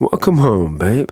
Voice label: Ambient Barks, en; Warframe; Mall Romance; Quincy